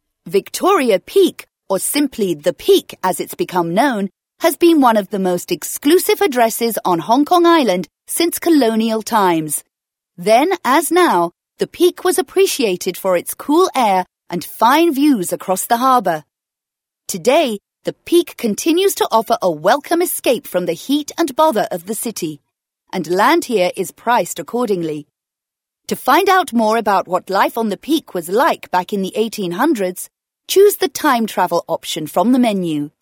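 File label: British English female corporate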